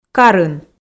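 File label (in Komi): "карын", Komi, female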